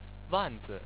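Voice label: "wan4zi", Chinese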